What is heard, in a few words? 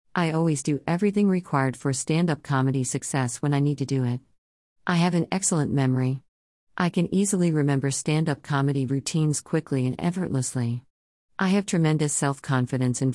Self Talk Female